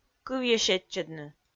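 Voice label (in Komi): "кывйӧ сетчӧдны", Komi, female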